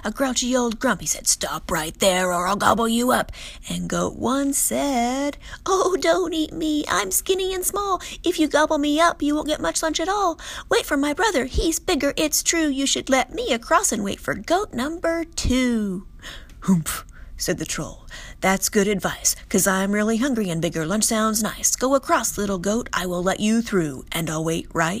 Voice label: billy goats gruff rap